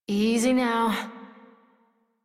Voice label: Horse Calm Adult; Warframe; Duviri